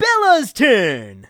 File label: announcer; Nick Summer Sports Stars; bella